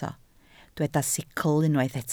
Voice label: "sicl", Welsh